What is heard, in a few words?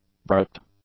"barracked", English; adjectives